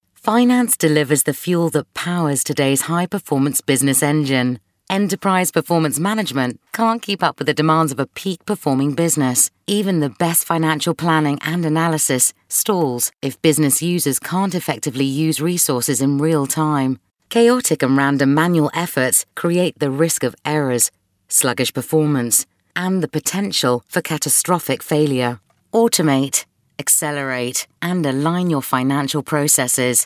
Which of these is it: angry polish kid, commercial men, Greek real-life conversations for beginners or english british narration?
english british narration